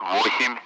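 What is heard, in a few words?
Speech Russian; Allied Flight; Digits; DCS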